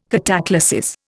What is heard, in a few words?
"cataclasis", Indian